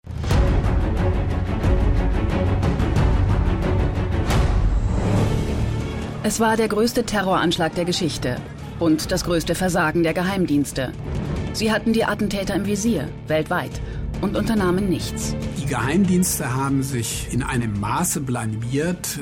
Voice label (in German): doku kommentar; n24